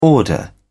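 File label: "order", English, British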